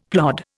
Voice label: "plod", Indian; Dictionary